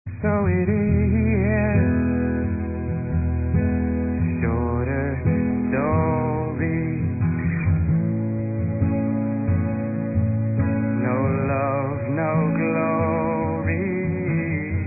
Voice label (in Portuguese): ERÓTICO SUAVE; Telemensagens; Dia Dos namorados; masc; Telemensagens 2017